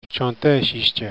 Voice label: "chantesice", lakota